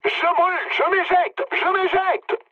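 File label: on fire, mood medium, French; War Thunder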